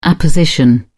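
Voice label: "apposition", British English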